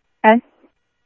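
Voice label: Simulcast Chandler Patrol B, scanner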